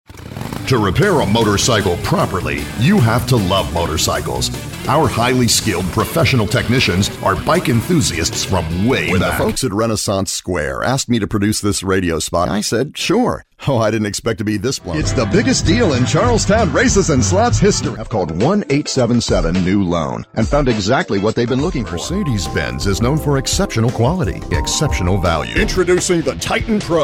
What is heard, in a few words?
Broadcast Male Voice Over; Male Voice Over Demo